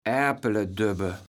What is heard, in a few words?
"Aerpeledöbbe", platt